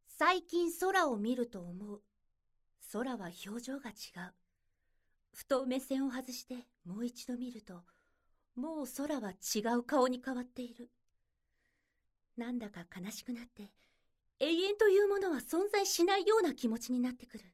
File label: narration